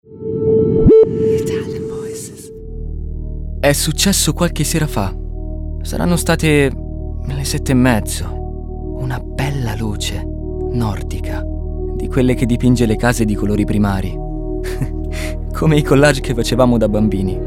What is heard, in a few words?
ITALIAN VOICES, male voices; Naked Reel